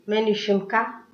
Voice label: name, tigrinya; መን’ዩ ሸምካ; menyu shimka

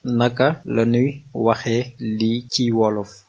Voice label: how to say, wolof

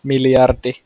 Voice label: billion, finnish

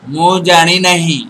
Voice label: i dont know, odia

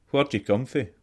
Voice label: where from, scots

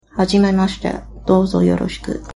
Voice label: meet, japanese

